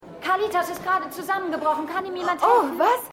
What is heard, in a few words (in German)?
Frau, Stimmproben; Seinfeld